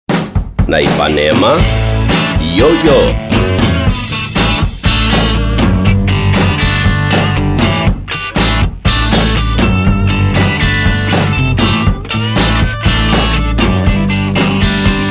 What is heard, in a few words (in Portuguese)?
spot; vinhetas 2005; IpanemaFM